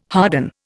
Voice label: "harden", Indian